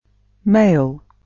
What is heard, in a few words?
"mail", male